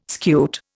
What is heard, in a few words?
"scute", Indian